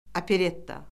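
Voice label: "operetta", Azerbaijani; Orfoqrafiya Lüğəti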